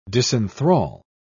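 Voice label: "disenthral", British English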